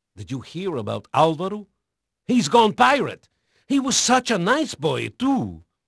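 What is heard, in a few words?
Portuguese male, voice; Pirates Of The Caribbean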